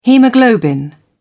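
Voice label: "haemoglobin", British English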